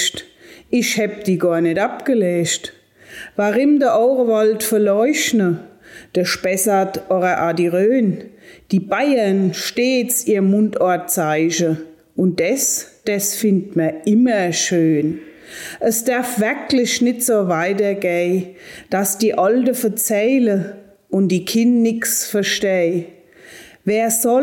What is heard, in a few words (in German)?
Mundart Heimatsprache